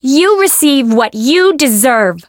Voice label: chant

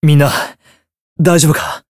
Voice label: win barely, jp

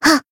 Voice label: Voice attack jp

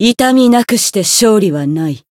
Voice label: win jp

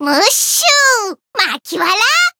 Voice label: growth, jp; makiwara

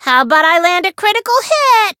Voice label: Voice variable chant; soira

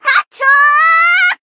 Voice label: voice jp; lingli; limitbreak

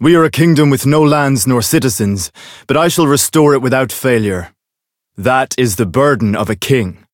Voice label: Voice story; claude

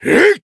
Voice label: attack jp

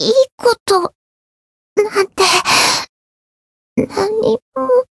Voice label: retire, jp

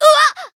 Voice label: damaged, jp

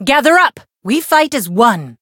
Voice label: chant

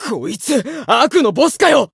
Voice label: Voice encount fear, jp

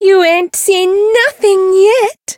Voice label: Voice variable chant; hoozuki

